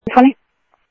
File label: Sheriff scanner audio